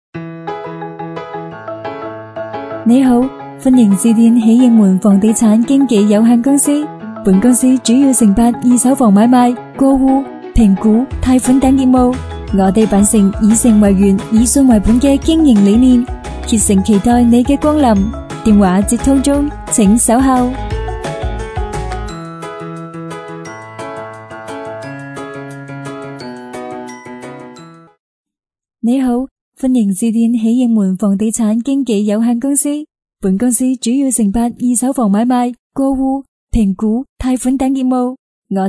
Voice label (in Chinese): 女声粤语彩铃甜美地产公司来电彩铃